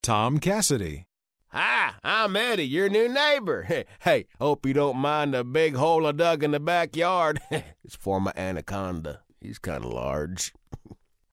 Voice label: hillbilly, redneck